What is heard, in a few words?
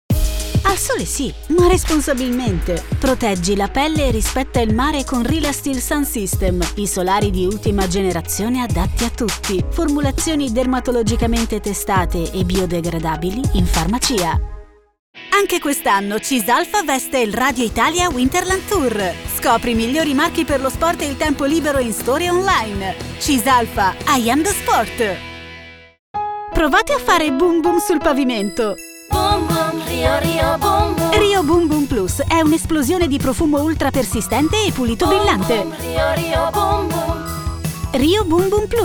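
Italian; GoLocalise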